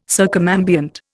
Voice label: "circumambient", Indian